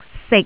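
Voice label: "sik3", Cantonese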